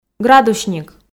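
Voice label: "градусник", Komi, female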